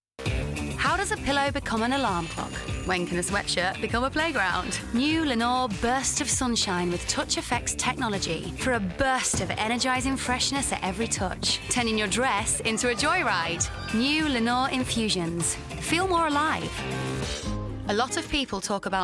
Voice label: commercial